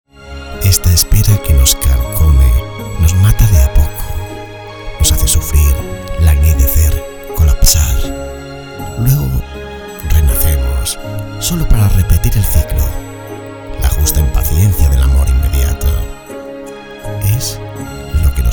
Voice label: locutor spanish voiceover, locutor español; Locución para la web

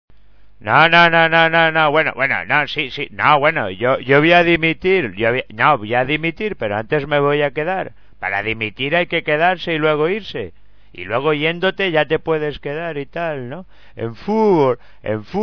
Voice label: imitador; Imitación de Luis Aragonés

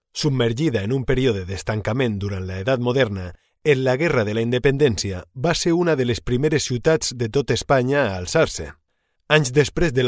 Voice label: locutor valenciano; locutora, Spanish voice over

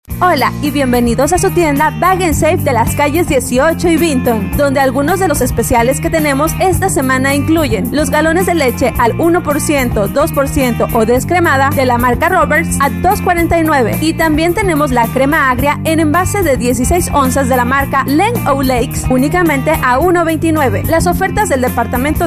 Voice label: locutora mexicana; locutora nativa de México; Locutores de México

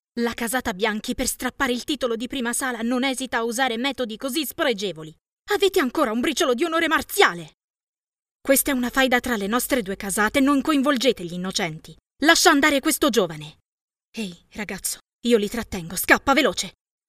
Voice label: locutora italiana italian voiceover

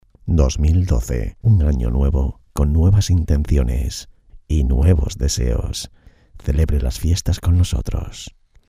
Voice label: jingle navidad spanish christmas voiceover; Jingle navideño "Feliz año 2012"

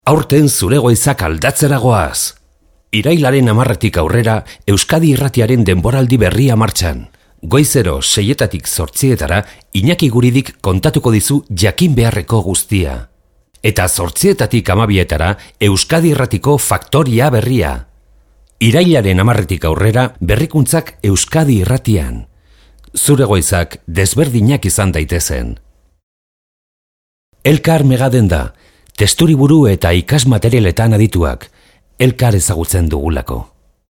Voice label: locutor euskera; locutor vasco

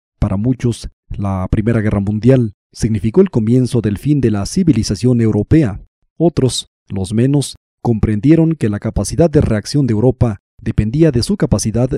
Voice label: locutor mexico mexican voiceovers; locutor mexicano

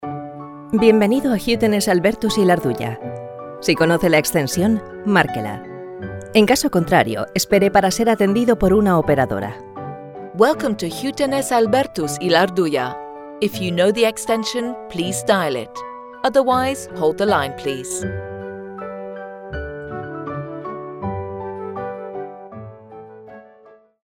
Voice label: centralita locutoras ivr voiceovers; mensajes para contestador

